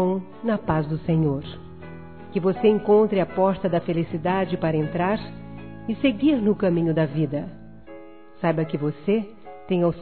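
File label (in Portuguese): PARABÉNS IRMÃO EM CRISTO, Gospel, fem; Telemensagens; Telemensagens 2017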